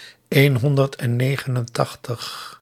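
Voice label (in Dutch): "eenhonderdennegenentachtig", Dutch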